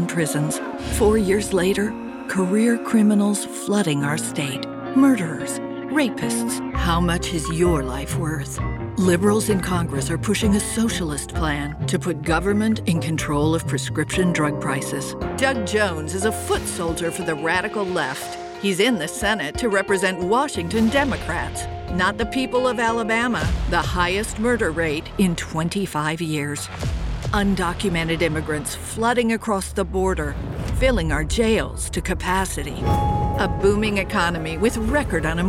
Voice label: Pol Attack